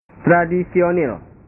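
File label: "tradisionil", Indonesian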